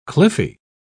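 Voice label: "cliffy", British English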